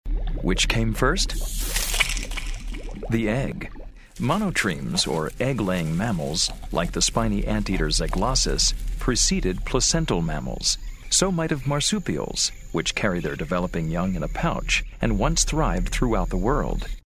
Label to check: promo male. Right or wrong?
Wrong